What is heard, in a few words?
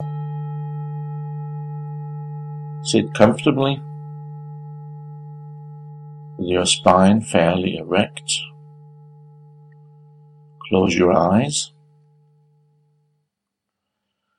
ENGLISH KUNDALINI KRIYA